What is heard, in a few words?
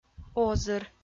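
"озыр" Komi-Zyrian, female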